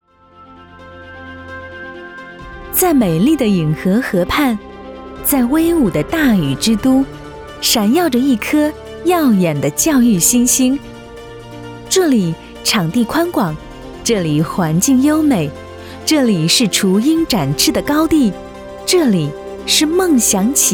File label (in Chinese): 国语女 学校专题; 禹州幼儿园